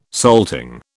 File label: "salting", British